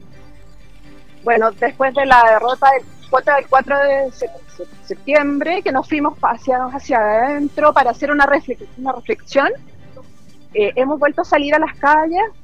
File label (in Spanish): cuña; marcha 8m